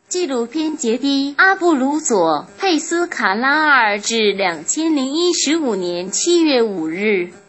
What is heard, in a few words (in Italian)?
cinese tradizionale